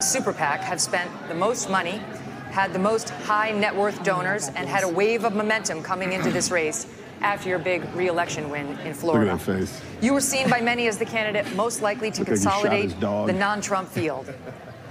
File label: HOT MIC; RON DESANTIS